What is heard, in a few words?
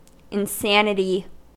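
"insanity", US English; pronunciations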